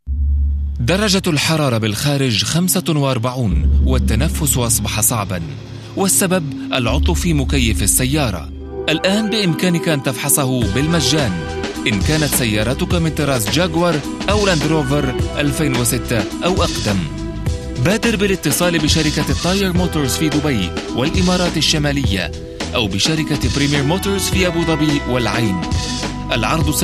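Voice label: Arabic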